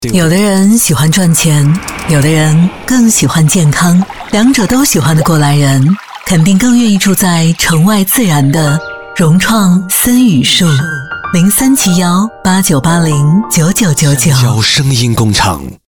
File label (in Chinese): 女 广告; 融创森屿墅